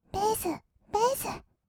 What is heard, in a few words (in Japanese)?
ベース ベース, kawaii voice; 音ゲー